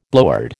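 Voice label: "blowhard", American English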